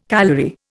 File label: "calorie", Indian